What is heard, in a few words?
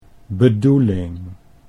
"bedoeling", Dutch